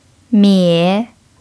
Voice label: "mie3", chinese pinyin